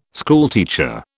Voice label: "schoolteacher", British